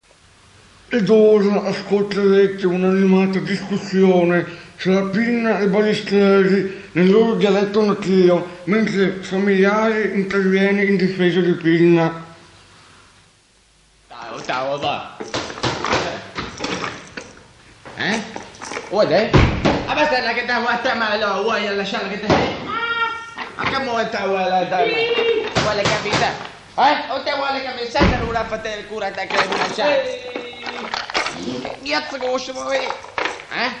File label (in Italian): dibattito; GITA A BERGAMO: commenti live